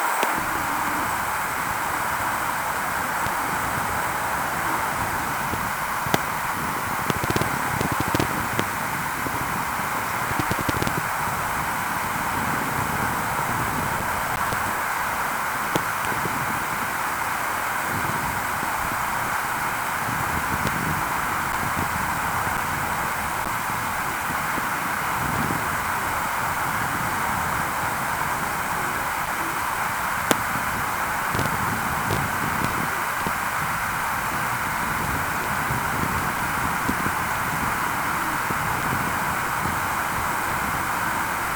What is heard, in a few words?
speak thinking subvocal murmur; “Speak Thinking” murmurs recorded during a shower.; using the “white noise” of the water